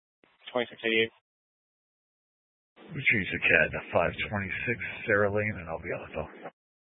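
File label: Sheriff Dispatch, scanner audio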